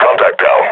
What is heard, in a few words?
announce kill, combine grunt; Half Life Model Pack